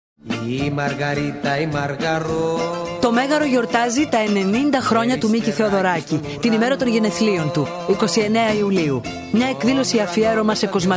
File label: radio spot